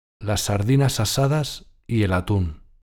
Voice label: "las sardinas asadas y el atun", pron